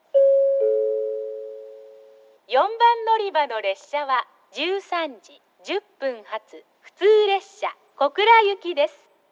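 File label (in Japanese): 4番のりば停車中案内放送, 女声; 行橋; 普通 小倉行き; 標準の放送内容です。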